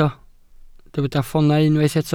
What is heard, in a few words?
"ffoonau", Welsh